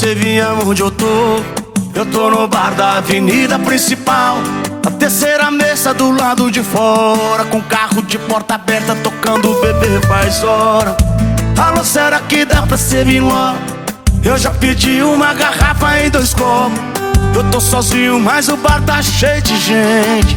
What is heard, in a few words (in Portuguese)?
locutor a voz magnifica